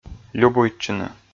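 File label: "любуйтчыны" Komi, male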